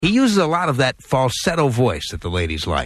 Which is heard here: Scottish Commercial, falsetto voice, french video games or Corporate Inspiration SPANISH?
falsetto voice